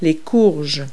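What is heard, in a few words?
"squash", vegetable; Multi-Lingual Talking Picture Dictionary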